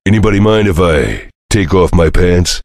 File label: chitchat; svencoop; voice commands; Duke